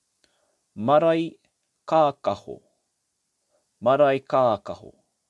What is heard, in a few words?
"Maraekakaho", te reo